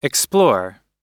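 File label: "explore", US English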